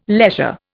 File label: "leisure", Indian